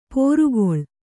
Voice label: "ಪೋರುಗೊಳ್", Kannada

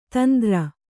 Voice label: "ತಂದ್ರ", Kannada

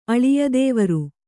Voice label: "ಅಳಿಯದೇವರು", kannada

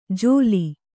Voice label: "ಜೋಲಿ", Kannada

